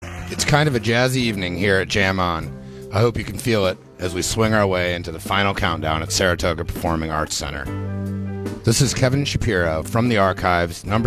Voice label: radio banter; SPAC